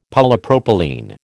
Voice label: "Polypropylene", American

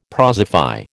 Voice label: "prosify", American

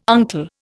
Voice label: "uncle", Indian

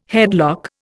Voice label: "headlock", Indian

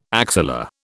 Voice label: "axilla", British

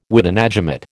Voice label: "witenagemot", American

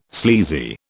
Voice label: "sleazy", British

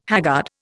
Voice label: "haggard", Indian

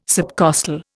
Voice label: "subcostal", Indian

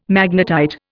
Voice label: "magnetite", Indian

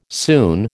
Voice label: "soon", American

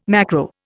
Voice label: "macro", Indian

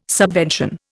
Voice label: "subvention", Indian

